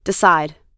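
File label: "decide", US English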